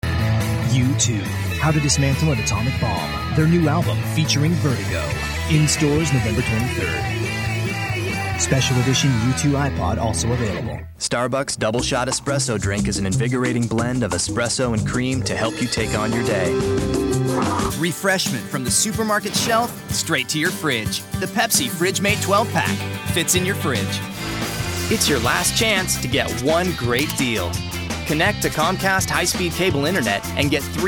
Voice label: commercial